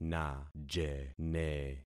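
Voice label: "najene", concatenated syllables; Affixing experiment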